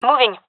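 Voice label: on my way, female; gendarmerie fem epic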